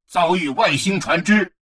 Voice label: advisor notification alien vessel encountered, chinese; Stellaris